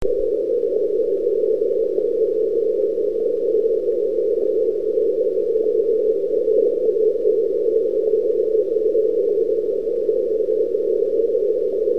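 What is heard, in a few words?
CQ, 160m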